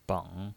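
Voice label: "beng", Mandarin Chinese, third tone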